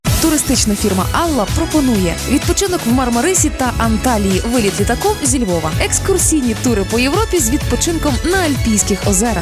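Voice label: ukrainian female voice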